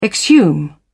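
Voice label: "exhume", British English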